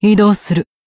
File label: Battle Tactical Action; Mina